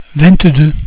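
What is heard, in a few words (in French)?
"vingt deux", French; cat numeration doigts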